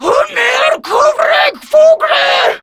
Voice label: Cover Me, Grineer Female; Warframe; Battle Barks

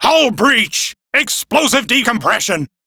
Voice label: Crew See Breach, Male; Warframe; Railjack Crew; Arbiters Hexis

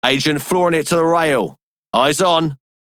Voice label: Male Radio; Dialog; Warframe; Solaris Venus

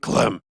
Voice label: Banter; Warframe; Clem; Dialog Barks Battle

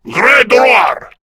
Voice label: Reload, Male

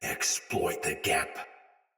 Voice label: Attack Heavy Soldier Sword Agent Male; Battle; Sinecure Melee

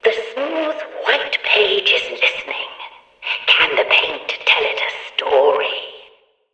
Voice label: Background Announcements

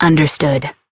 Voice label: Operator Voice, en; Warframe; New War

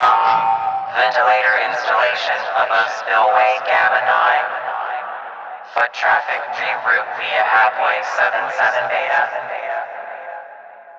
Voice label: PA System, en; Dialog; Warframe; Solaris Venus

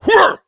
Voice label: Angry; Warframe; Tyl Regor Boss; Efforts

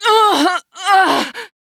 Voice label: Death, Female; Warframe; Railjack; New Loka

